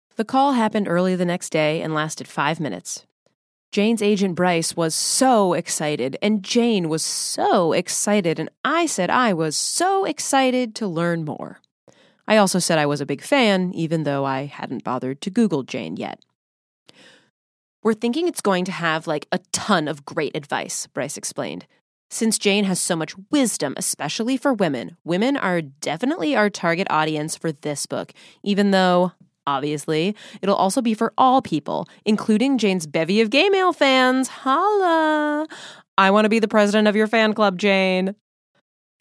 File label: Voiceover, Audio Books; new york